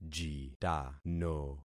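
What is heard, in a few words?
"jitano", concatenated syllables; Affixing experiment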